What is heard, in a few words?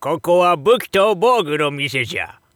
shop oldman; bukibougu